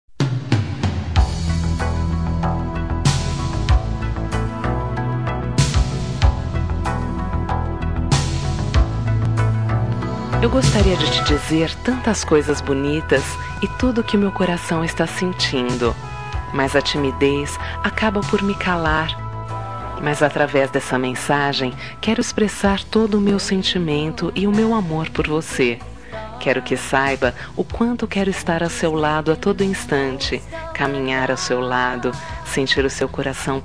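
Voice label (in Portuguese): Rom pedido namoro, feminino; Telemensagens; Românticas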